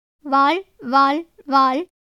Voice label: Tamil